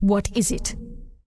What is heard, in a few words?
Undead Female Warrior Greeting; NPC